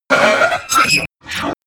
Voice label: crazy talk